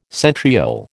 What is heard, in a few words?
"centriole", American